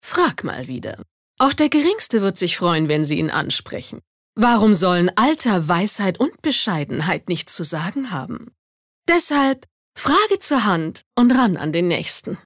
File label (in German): Werbung, Cool